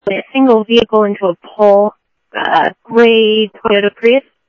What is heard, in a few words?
Tempe Police, scanner audio; SimulcastF-Tempe